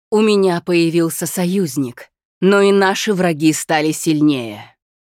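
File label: Hero Join Game Russian, Женщина; Diablo III: обзор обновления PTR 2.6.0 — озвучка некроманта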